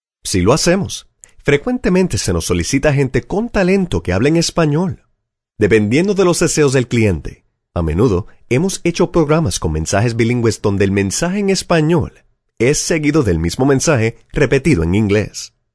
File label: faq spanish